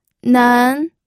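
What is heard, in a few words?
"nen", second tone, pinyin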